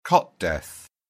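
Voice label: "cot death", British English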